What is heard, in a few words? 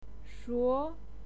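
"shuo", Mandarin Chinese, second tone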